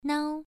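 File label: "nao", tone 1, pronunciation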